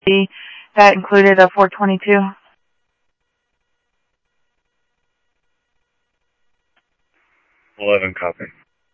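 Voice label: Mesa Police Department, scanner audio; Mesa Simulcast; MESA PD 1 Fiesta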